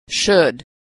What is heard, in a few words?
vowel "should", pronunciation